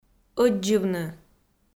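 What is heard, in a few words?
"ӧддзывны", Komi-Zyrian, female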